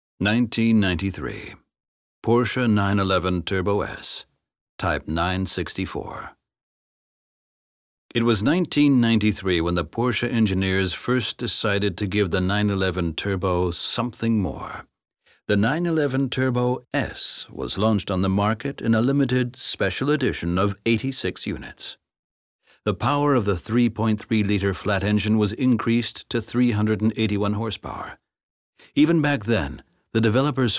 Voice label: us englisch

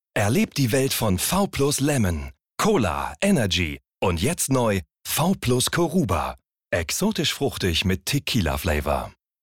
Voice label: Werbung, Werbung (jung), Commercial, German; LOFT VOICES

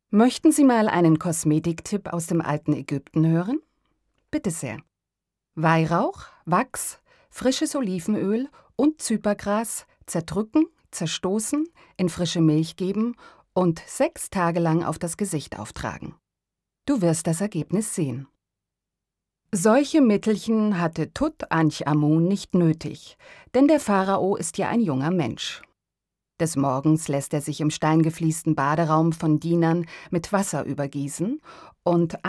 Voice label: Audioguide Museum

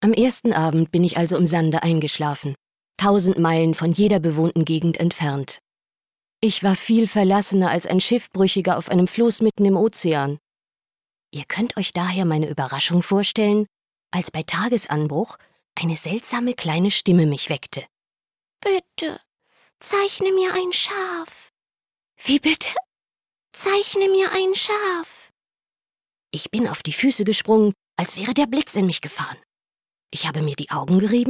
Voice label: hoerspiel

